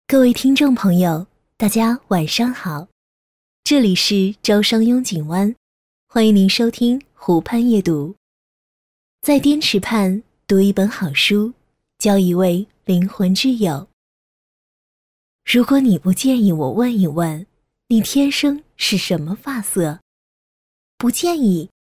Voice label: 女, 有声读物, 故事讲述; 湖畔夜读; 孤儿列车

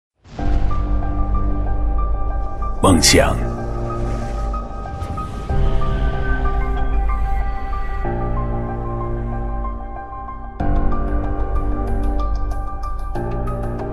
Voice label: 男25 高贵, 国语男声配音; 比亚迪

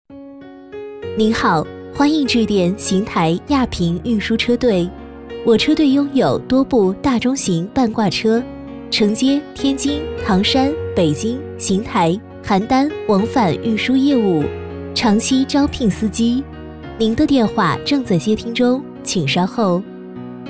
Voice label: 女 彩铃; 邢台亚平运输车队

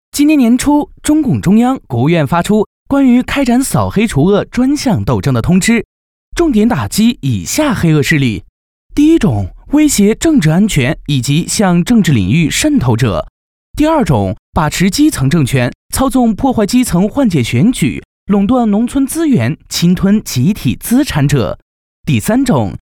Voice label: 男, 扫黑除恶录音

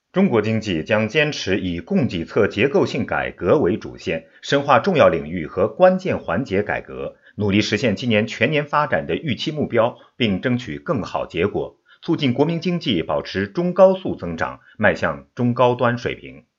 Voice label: 专题 新闻播报

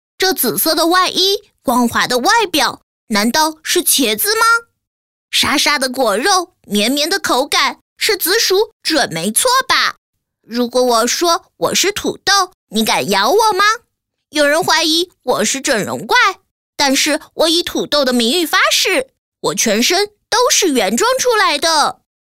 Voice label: 童声 男童; 国语女声配音; 多风格录音

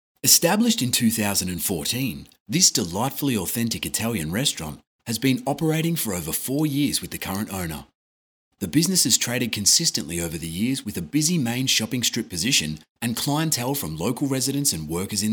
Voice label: 外籍英男, 酒店介绍

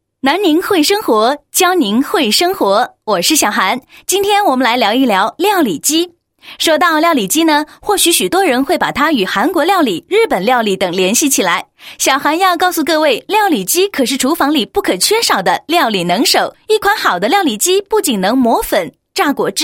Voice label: 女, 电台节目; 小涵料理机电台版

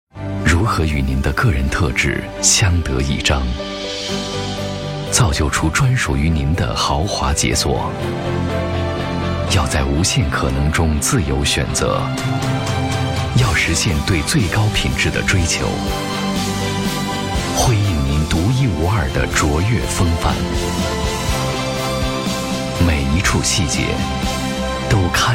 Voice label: 男, 汽车广告; 全新BMW; 7系

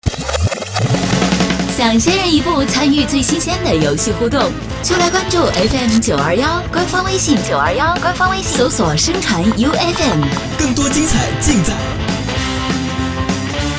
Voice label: 女-广播

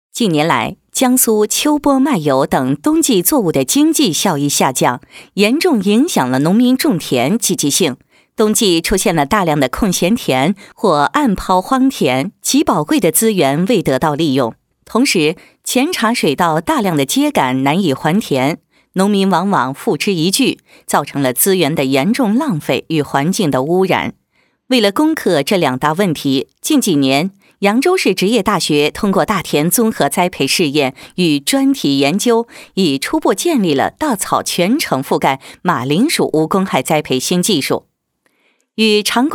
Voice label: 女, 农业技术解说; 马铃薯高产高效清洁栽培技术规程配音

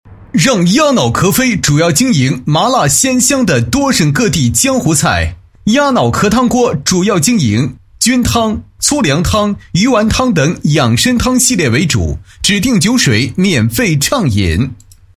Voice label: 男, 广告; 让鸭脑壳飞

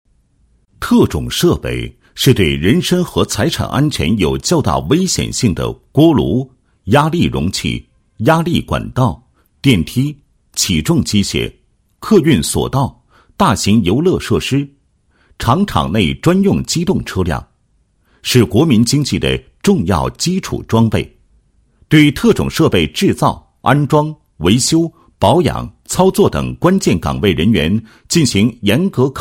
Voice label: 男 纪实; 记奋进中的福建省特检院考试中心

